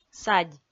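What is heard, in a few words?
"садь", Komi, female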